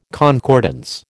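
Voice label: "concordance", American English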